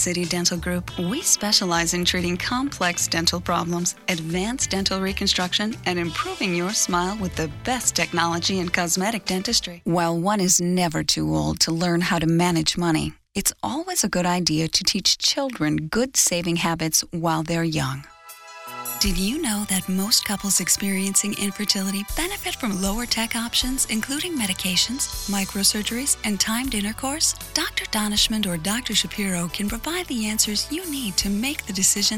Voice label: Phone Hold Female